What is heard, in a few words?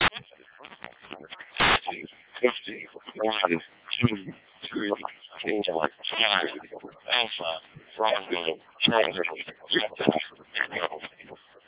freedv snr=-03